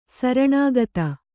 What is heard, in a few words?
"ಶರಣಾಗತ", kannada